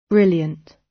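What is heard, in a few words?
"brilliant", British English; Time, history; Thời gian, lịch sử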